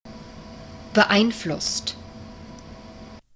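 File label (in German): "beeinflusst", German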